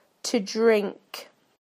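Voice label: "to drink", British English; PictureDictionary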